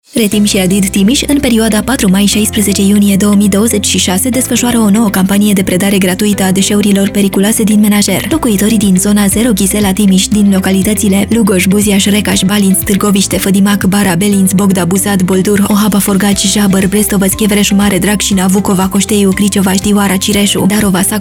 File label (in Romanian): Spot Radio; Periculoase din menajer; Zona 0; campanie colectare zona 0 ghizela